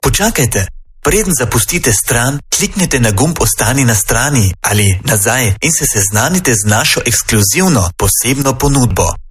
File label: IVR Slovenian Dry